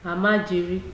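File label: "almajiri", Nigerian Pidgin, female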